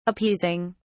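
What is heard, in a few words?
"appeasing", British English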